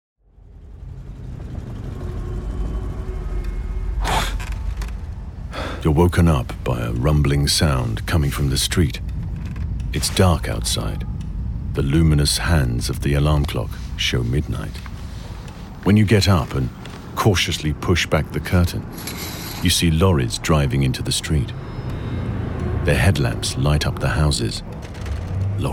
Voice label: documentary; Razzia